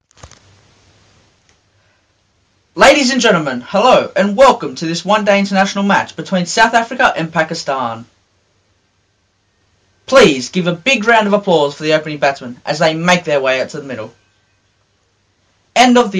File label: vocals english australian male